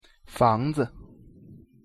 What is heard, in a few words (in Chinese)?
pronunciation zh 房子